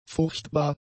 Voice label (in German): "furchtbar", German; adjectives